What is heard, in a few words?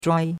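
"zhuai1", Chinese syllable, first tone; Cours-de-Chinois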